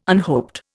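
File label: "unhoped", Indian English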